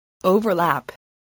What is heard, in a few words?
"overlap", English voice